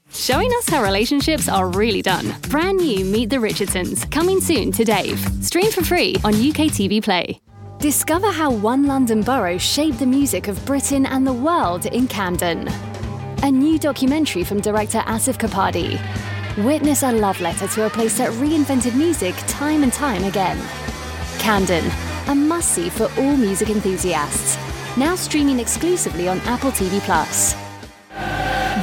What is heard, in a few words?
promo